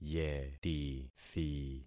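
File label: "yetithi", concatenated syllables; Affixing experiment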